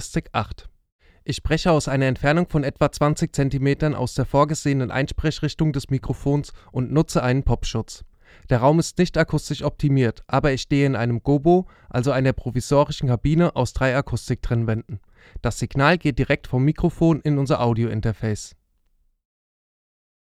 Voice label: fame pro series vrm-24 sprache m

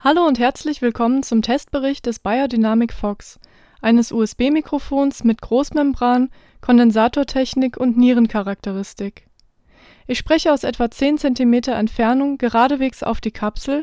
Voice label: sprache f; beyerdynamic fox

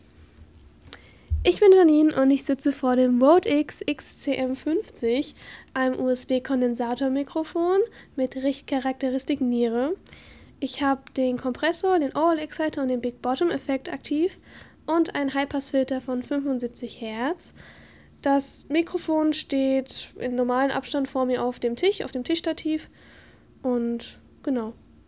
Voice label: mit popfilter verstaerkt; rode x xcm 50

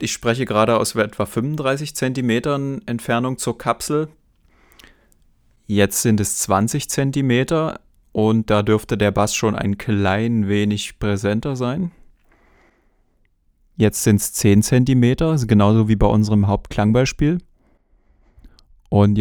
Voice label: nahbesprechungseffekt shure ksm32

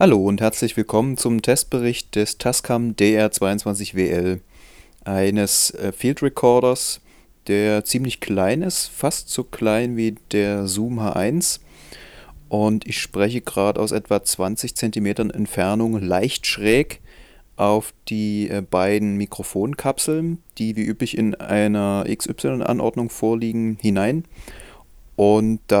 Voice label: Stimme Interview-Modus; tascam dr-22wl

